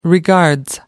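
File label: "regards", British English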